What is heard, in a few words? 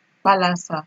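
"kpalasa", Nigerian Pidgin, female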